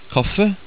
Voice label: "kaffe", Norwegian